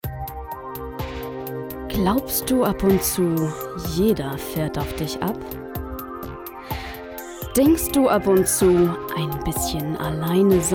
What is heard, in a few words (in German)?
Werbung